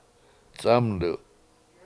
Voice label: "c7Amdu7" Western Choke Cherry, Washo